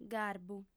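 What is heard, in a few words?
"garbu", female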